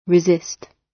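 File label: "resist" British; Flora and fauna, agriculture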